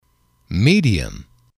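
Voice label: "medium", English, male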